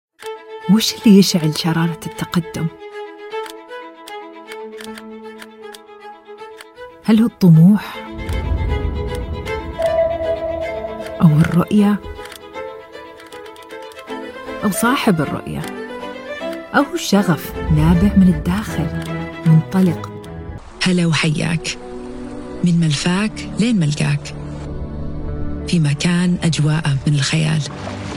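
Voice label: Arabic Gulf Commercial, voice demos; GoLocalise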